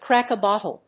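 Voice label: "Crack a Bottle", English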